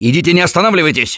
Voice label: emphatic go quiet, russian; Gambler

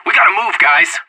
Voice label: radio lets go, player vo anarchist; Counter-Strike Global Offensive

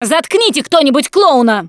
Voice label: see clowns, russian; Producer

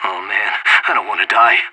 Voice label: scared emote; Counter-Strike Global Offensive

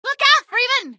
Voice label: look out, female; npc; Garry's Mod; hl2

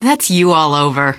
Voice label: answer, female; Garry's Mod; hl2

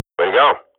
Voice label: radio bot nice shot, Counter-Strike swat

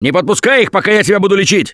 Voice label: Heal Other Combat, russian, Survivor Voice; Gambler

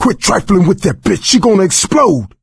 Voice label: witch getting angry, coach; Left 4 Dead 2

